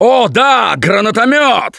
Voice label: grenade launcher, russian; Gambler